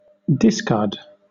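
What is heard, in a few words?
"discard", British English, noun; pronunciations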